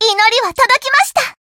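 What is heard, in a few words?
Voice healed, Japanese; suzette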